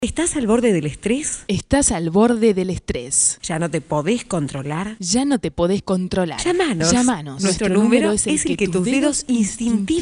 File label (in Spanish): publicidad